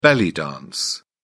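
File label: "belly dance", British English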